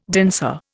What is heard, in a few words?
"danseur", Indian